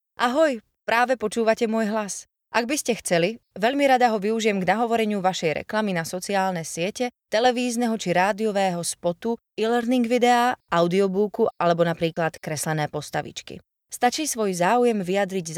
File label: slovak narration